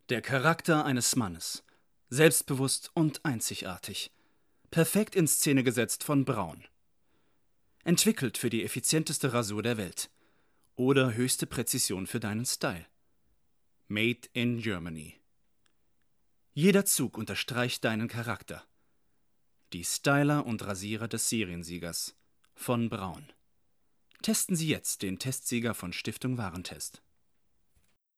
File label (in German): Werbung; Braun